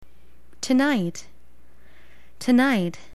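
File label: "tonight", English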